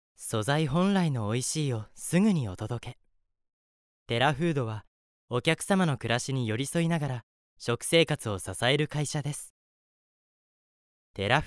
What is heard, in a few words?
Narration Food Company CM; ナレーション03食品メーカーの企業CM; bloomz-inc